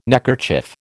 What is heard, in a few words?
"neckerchief", American